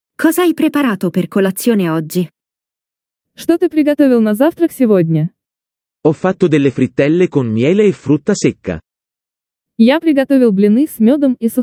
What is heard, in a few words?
dialogo it ru; МИНИ-ДИАЛОГИ на итальянском языке; Итальянский на слух